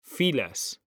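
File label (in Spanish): "filas", Spanish; mekanta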